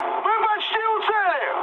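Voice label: Russian near waypoint mood high, speech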